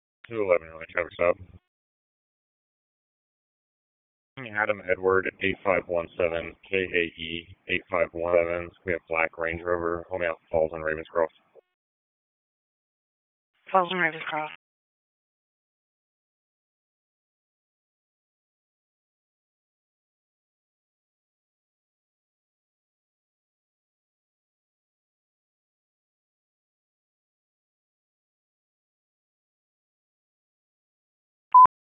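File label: Police Northeast District Dispatch, p25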